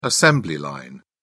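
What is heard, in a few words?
"assembly line", British English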